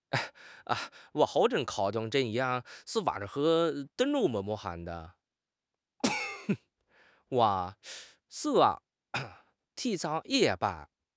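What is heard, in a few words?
"yang4 zi5", Mandarin Chinese; HSK4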